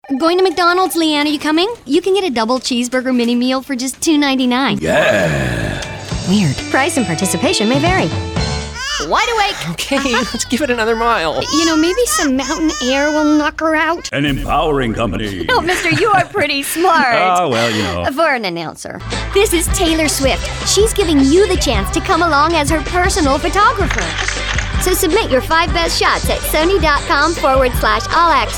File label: commercial women